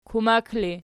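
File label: "kumak", ergative, Archi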